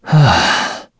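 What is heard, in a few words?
Vox Deny, korean; Esker; kingsraid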